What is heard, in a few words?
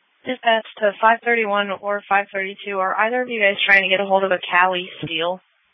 Sheriff Dispatch; sdr